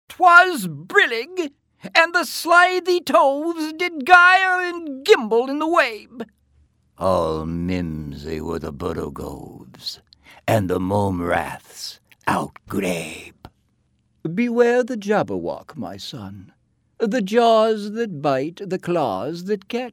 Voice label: character